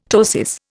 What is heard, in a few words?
"ptosis", Indian; Dictionary